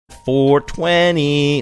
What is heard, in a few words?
saying four twenty singsongy